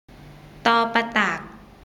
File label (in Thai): "ฏ ปฏัก", Thai alphabet